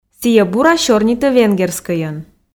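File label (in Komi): сійӧ бура сёрнитӧ венгерскӧйӧн, Komi, female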